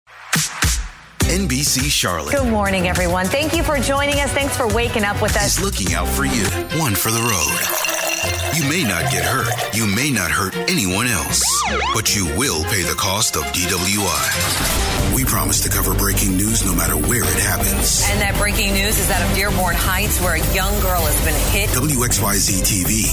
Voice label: TV Affiliate, VO; entertainment marketing and creative advert